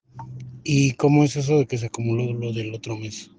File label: whatsapp ptt